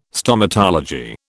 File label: "Stomatology", British